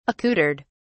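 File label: "accoutred", British English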